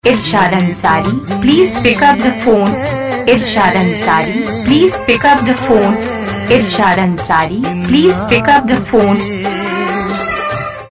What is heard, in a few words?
pick up the phone saying, Ringtones; Your Name Ringtones A To Z Names